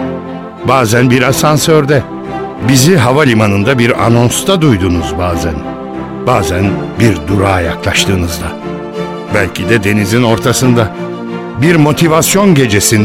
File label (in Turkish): reklam; bibersa